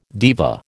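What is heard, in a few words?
"diva", American English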